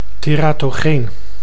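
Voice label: "teratogeen", Dutch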